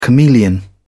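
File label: "chameleon", British English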